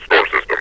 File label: storm system, metropolice; Half Life 2